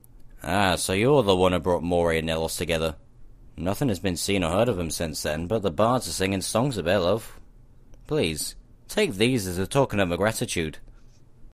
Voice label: breton, voice auditions

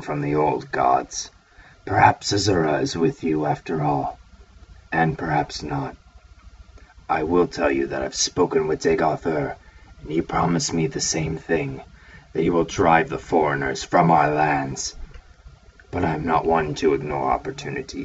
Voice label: dark elf audition, voice acting

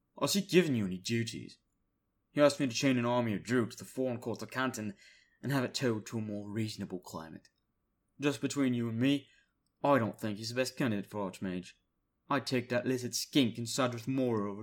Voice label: imperial british style

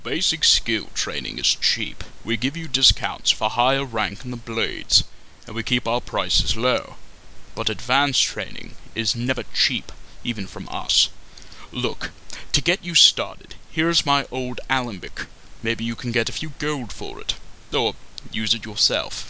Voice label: high elf audition, voice acting

